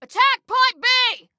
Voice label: attack, Female